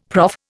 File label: "prof", Indian; Dictionary